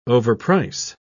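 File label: "overprice", British English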